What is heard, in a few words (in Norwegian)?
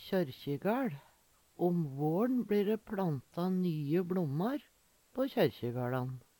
kjorkjegal, Numedalsmål